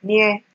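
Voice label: "near", Nigerian Pidgin, female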